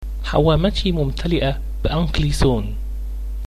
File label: "hovercraft", Arabic